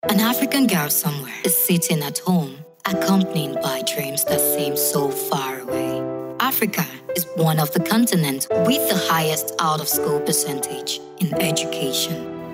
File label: Yoruba F English